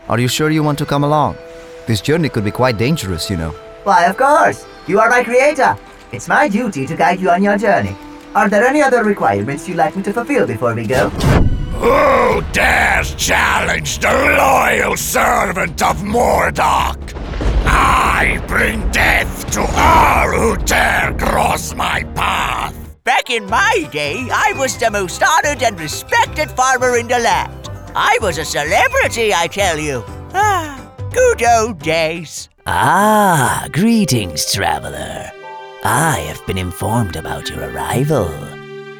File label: Interactive Videogame Voice Over